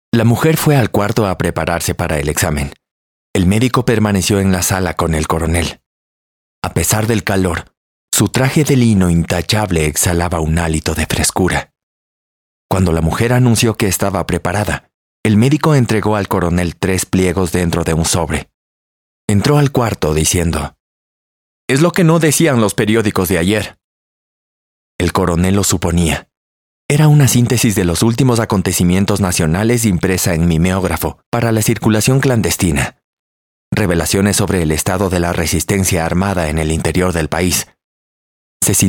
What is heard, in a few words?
spanish voice over male audiobook